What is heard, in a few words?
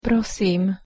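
"prosím", Slovak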